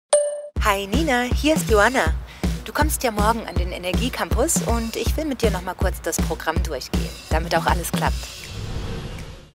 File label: de character; energie campus nurnberg